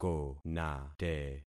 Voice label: "konate", concat syllables; Affixing experiment

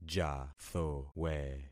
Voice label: "jathowe", concat syllables; Affixing experiment

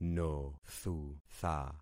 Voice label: "nothutha", concat syllables; Affixing experiment

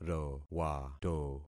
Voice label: "rowato", concat syllables; Affixing experiment

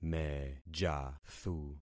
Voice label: "mejathu", concat syllables; Affixing experiment

